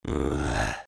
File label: negative vocalization, fr; Sniper